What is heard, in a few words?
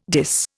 "dis", Indian